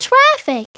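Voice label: "traffic", English; Treasure Galaxy; Learning Company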